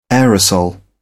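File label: "aerosol", English, uk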